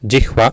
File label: "jihva", Sanskrit